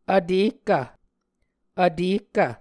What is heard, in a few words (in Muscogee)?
"vtehkv", Muscogee